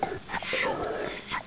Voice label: whisper